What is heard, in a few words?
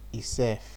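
"esef", Nigerian Pidgin, male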